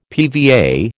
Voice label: "PVA", American